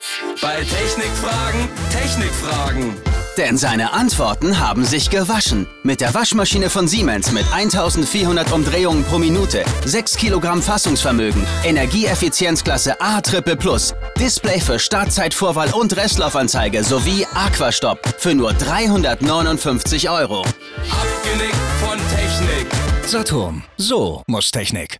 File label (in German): Dynamisch